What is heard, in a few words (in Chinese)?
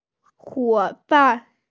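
"huo3ban4", Mandarin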